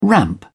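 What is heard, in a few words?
"ramp", British English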